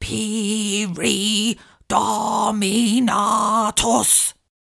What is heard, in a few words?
pyri dominatus slow, incantations older female; fire spells; psfx